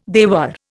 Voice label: "dewar", Indian

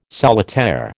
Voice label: "solitaire", American

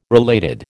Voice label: "related", American

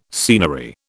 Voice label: "scenery", British

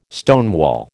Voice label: "stonewall", American

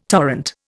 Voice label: "torrent", Indian

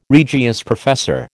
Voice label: "Regius professor", American

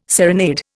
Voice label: "serenade", Indian

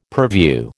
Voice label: "purview", American

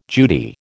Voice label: "Judy", American

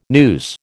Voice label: "noose", American

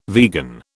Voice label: "vegan", British

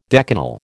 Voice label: "decanal", American

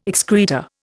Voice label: "excreta", Indian

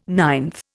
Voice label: "ninth", Indian

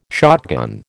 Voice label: "shotgun", American

